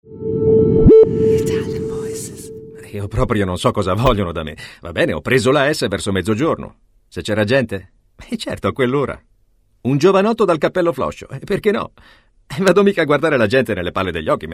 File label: ITALIAN VOICES Acting Dubbing